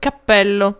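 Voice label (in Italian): "cappello", Italian; tdegames; ktuberling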